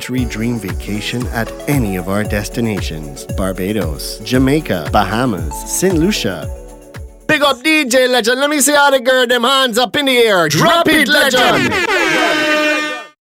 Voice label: english caribbean commercial; Englisch (International) Englisch (Karibik); Vereinigtes Königreich

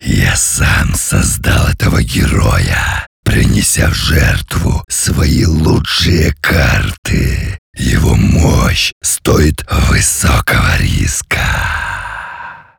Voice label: russian video games

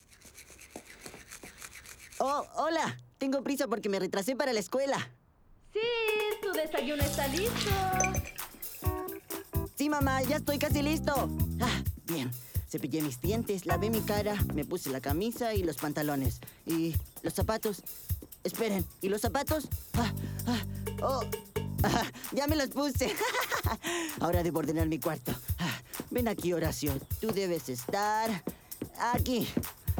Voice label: spanish latin american animation

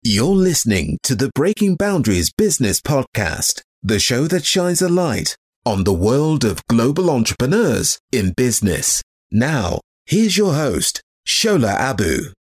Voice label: english british podcasts

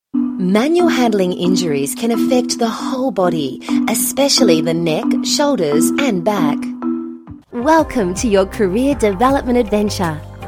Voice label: english australian e-learning

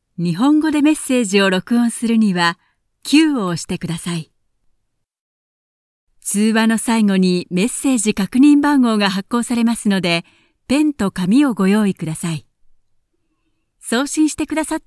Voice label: japanese ivr

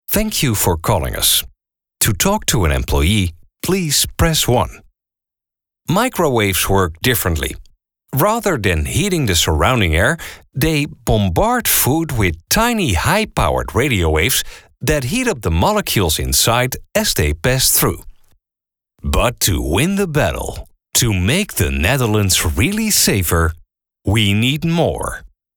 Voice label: english commercial

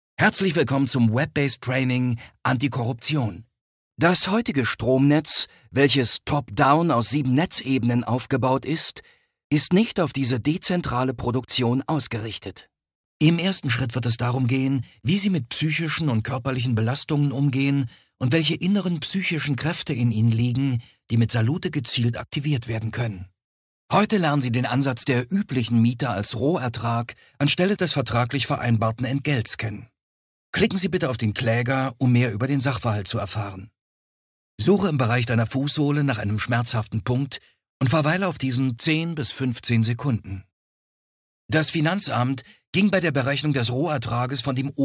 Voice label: german explainer videos

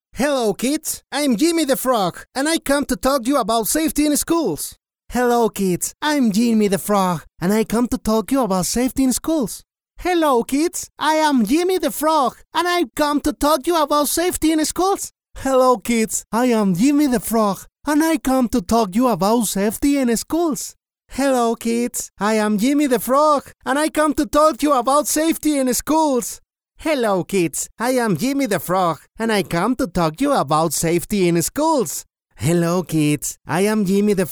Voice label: spanish latin american impersonations

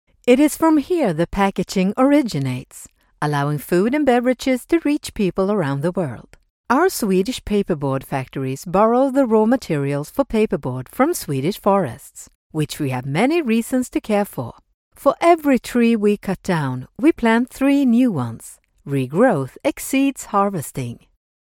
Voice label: english narration

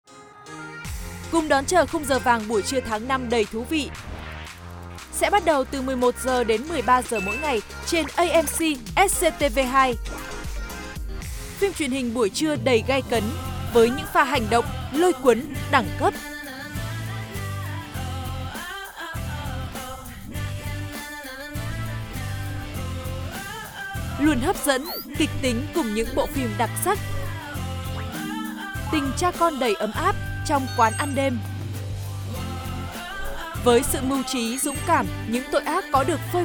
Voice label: vietnamese movie trailers; multi-lingual voice actor

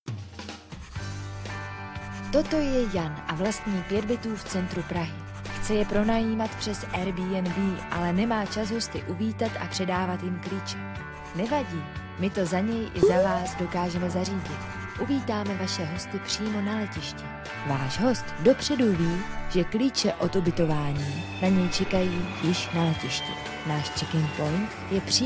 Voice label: czech commercial

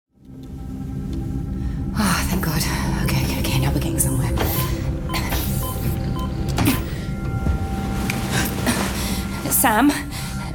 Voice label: English British video games

